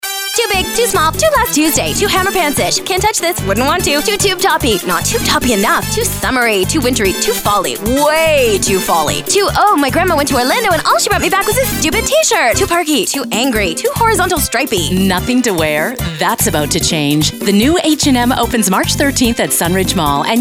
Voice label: radiospot